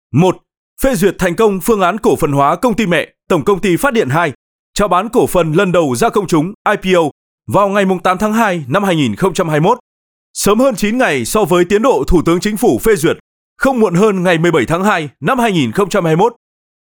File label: Vietnamese North male; EVNGENCO